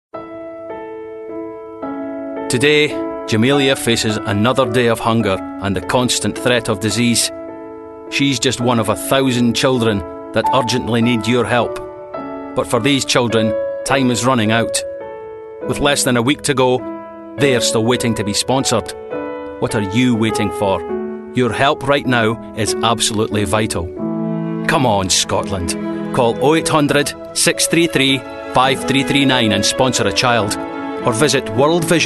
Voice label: scottish